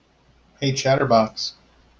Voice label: hey chatterbox, en us